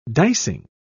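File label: "dicing", British English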